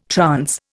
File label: "trance", Indian